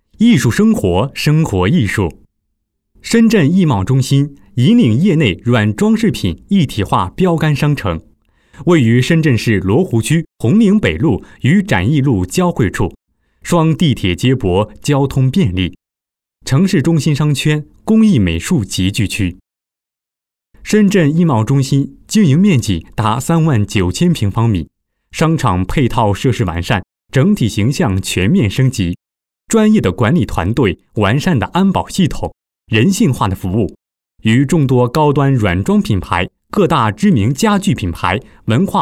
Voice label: 宣传片 解说稿; 深圳艺茂中心宣传片解说稿试音

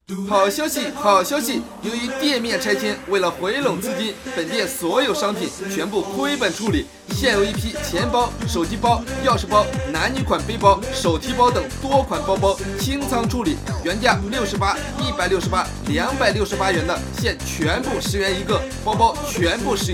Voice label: 促销

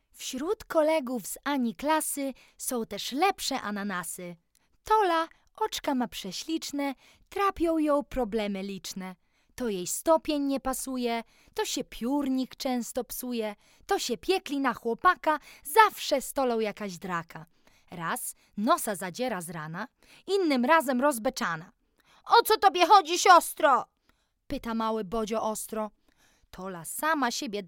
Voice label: 波兰语女慢速舒缓

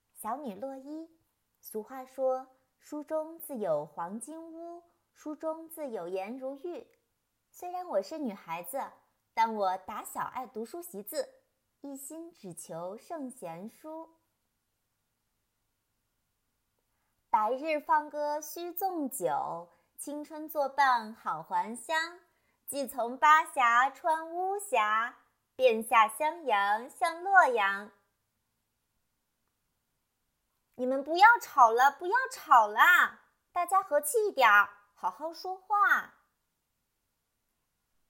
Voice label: 情景剧; 洛伊王姑娘

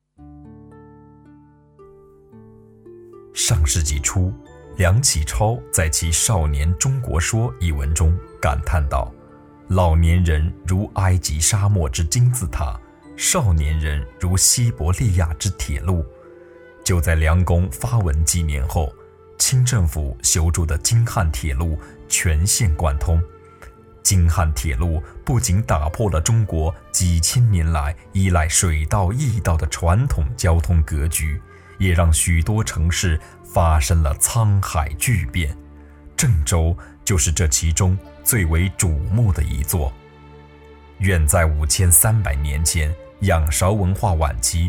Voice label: 练习纪录片

